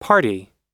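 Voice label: "party", American English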